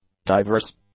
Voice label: "diverse", English; adjectives

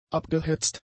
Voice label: "abgehetzt", German; adjectives

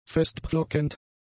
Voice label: "festpflockend", german; adjectives

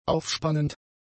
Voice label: "aufspannend", German; adjectives

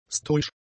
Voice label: "stoisch", German; adjectives

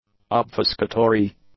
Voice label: "obfuscatory", english; adjectives

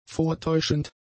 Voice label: "vortäuschend", German; adjectives

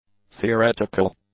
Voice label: "theoretical", English; adjectives

